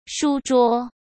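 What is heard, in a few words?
"书桌", Mandarin Chinese; chinese-flashcards; hsk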